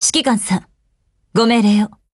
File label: HELLO JP; GF Alarm; M1887